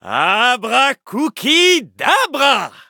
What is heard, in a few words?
loading, fr